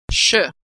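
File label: sound "sh", American English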